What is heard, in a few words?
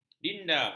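"dinda", Malay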